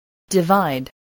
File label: "divide", spelling